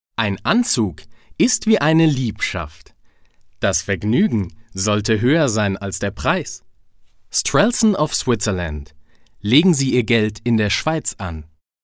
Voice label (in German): werbung